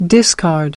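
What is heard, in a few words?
"Discard", American English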